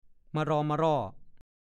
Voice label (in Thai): "มะรอมมะร่อ", ภาษาโคราช; รอมร่อ เกือบจะ จวนเจียนจะ